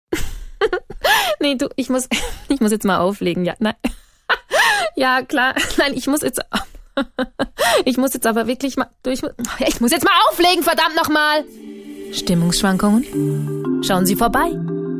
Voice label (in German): Werbung Variabel